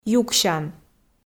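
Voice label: "юксян", Komi, female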